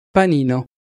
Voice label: "panino", Italian Pronunciations

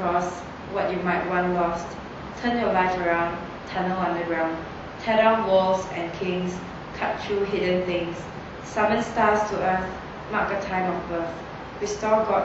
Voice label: Poetry

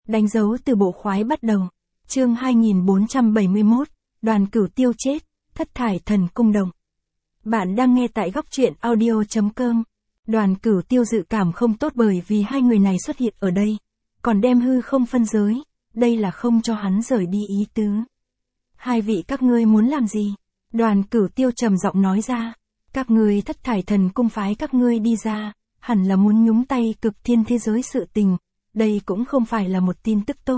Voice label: vi-VN Standard; danh dau tu bo khoai bat dau

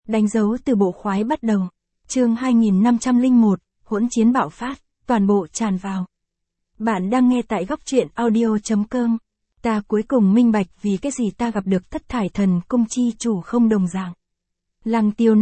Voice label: vi-VN Standard; danh dau tu bo khoai bat dau

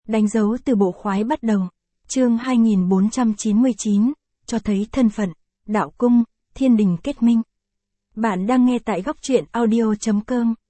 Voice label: vi-VN Standard; danh dau tu bo khoai bat dau

